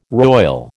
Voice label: "roil", American